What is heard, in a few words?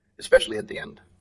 Voice Acting, es